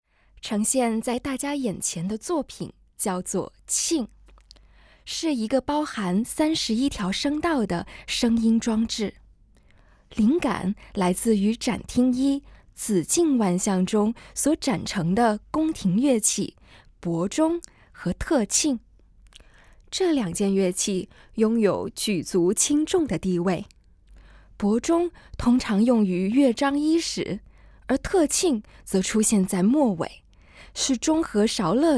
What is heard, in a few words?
Putonghua, audio-guide